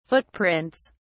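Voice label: "footprints", en, uk British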